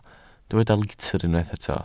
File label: "litr", Welsh